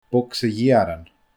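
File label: "bogsa gheearan", Scottish Gaelic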